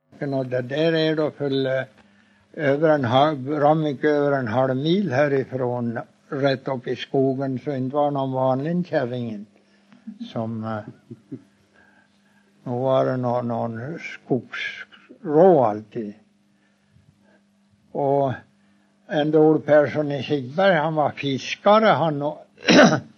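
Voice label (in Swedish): Huddunge Uppland, dialekter; Folkminnen; Svenska sagor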